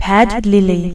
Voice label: pad lilly, announcer

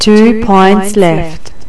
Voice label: two points, announcer

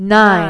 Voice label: "nine", announcer

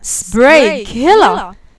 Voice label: spraykiller, announcer

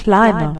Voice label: climber, announcer

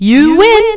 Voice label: you win, announcer

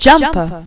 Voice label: jumper, announcer

